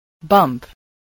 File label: "bump", spelling